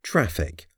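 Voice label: "traffic", English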